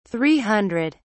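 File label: "three hundred", British English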